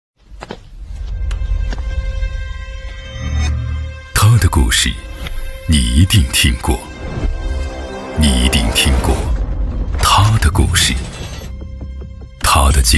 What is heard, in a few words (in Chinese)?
男 广告 汽车 深沉高端; 重塑